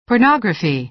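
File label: "pornography", American English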